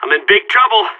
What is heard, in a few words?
radio taking fire; vo; agents; Source Model Packs; Counter Strike Model Pack; CS2; seal